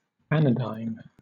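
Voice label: "anodyne", British English; pronunciations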